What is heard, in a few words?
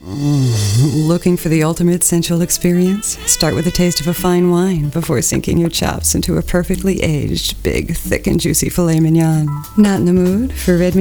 Commercial; Ruths Chris Steakhouse